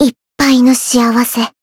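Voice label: Voice heal, Japanese; nagi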